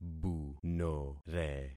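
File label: "bunore", concatenated syllables; Affixing experiment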